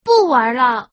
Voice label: Woman giveup